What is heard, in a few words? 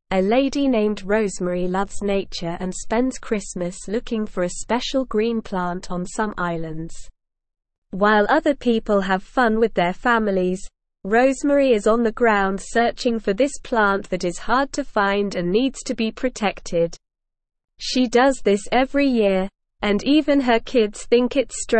English SLOW Reading; Rosemarys Love for Plants and Helping the Isles; English Newsroom